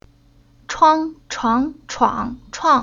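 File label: "Chuang", Chinese syllable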